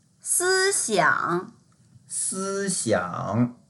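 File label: "si1xiang3", Mandarin Chinese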